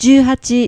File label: "juuhachi", numbers